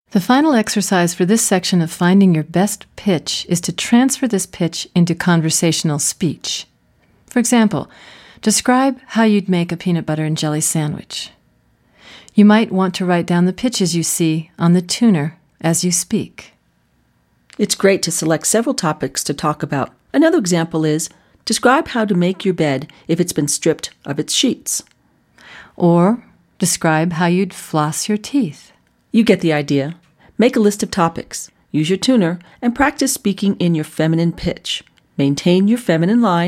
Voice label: Voice Fem